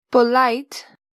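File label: "polite", English; properties of objects new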